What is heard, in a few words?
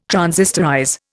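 "transistorize", Indian English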